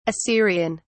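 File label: "assyrian", British English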